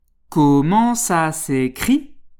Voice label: "comment ça s'écrit", French

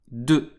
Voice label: number 2, French

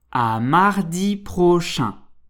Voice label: "à mardi prochaine", French

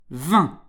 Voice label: number 20, French

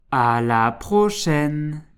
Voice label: "à la prochaine", French

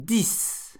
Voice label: number 10, French

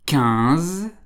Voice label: number 15, French

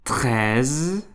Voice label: number 13, French

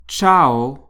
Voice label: "ciao", French

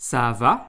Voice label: "ça va", French

